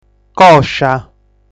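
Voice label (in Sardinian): "Còscia", Sardinian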